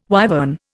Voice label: "wyvern", Indian